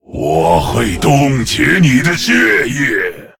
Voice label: Male Lich; TRIGGER Blizzard; zh